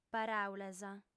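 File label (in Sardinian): "paràulas", female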